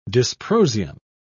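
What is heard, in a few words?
"dysprosium", British English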